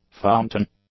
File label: "fountain", English; nouns